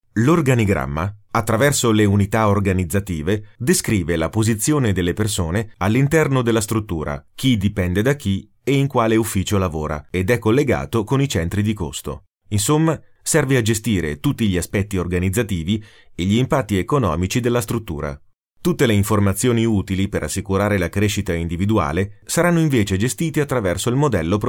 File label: Elearning